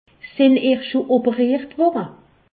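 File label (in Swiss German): "operiert", Alsatian; medecine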